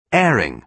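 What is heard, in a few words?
"airing", British English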